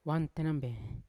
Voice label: "wamtenambe" all, Yawa